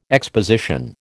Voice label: "exposition", American

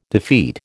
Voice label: "defeat", American

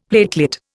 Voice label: "platelet", Indian; Dictionary

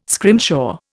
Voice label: "scrimshaw", Indian

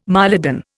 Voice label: "mylodon", Indian

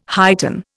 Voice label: "heighten", Indian

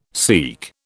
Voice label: "SEAQ", British

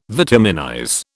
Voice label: "vitaminize", British

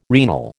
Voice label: "renal", American

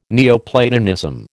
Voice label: "Neoplatonism", American

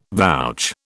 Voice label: "vouch", British

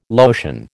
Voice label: "lotion", American

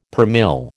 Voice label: "per mille", American

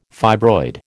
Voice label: "fibroid", American

